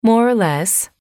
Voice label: more or less, English